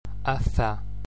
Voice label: slender f & ph, gaelic

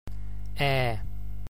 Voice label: ae, gaelic

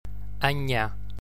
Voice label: slender ng, gaelic

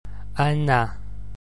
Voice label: slender n (n'), gaelic

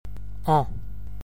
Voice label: nasalised a, gaelic

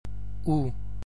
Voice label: u, unstressed ъ (Donegal), gaelic

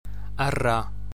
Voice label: broad rr (R), gaelic

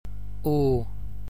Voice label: closed у, gaelic

